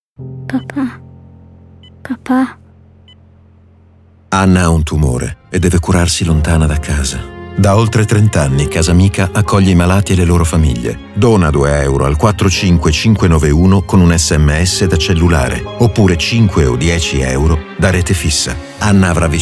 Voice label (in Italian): Spot Radio SMS; CASAMICA